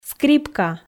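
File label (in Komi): "скрипка", Komi, female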